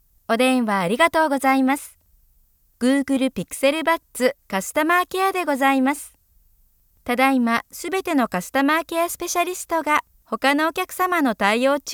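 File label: Japanese, female, ivr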